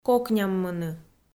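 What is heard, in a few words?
"кокняммыны", Komi, female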